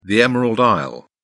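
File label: "emerald isle", British English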